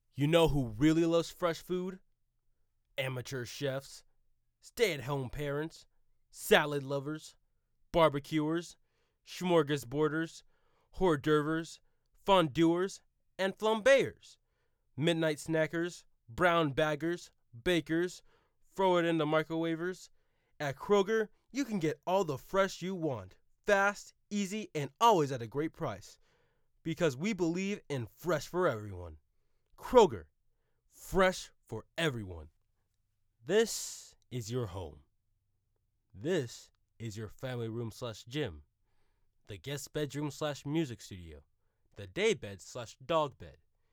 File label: Commercial